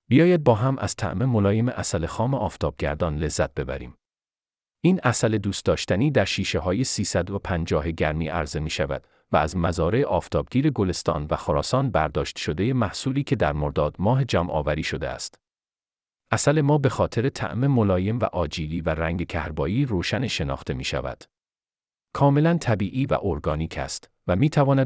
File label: Persian; بیاید با هم